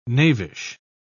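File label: "knavish", British English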